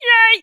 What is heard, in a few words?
human female funny says yay